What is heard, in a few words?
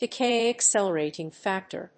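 "decay accelerating factor", English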